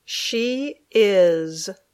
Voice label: "She is", English